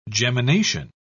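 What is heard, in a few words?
"gemination", British English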